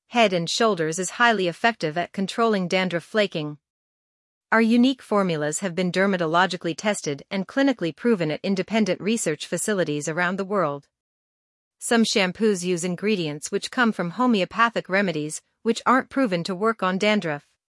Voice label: marketing example